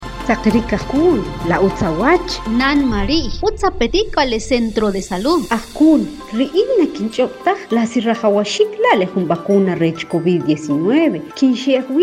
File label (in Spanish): CONVERSACION KICHE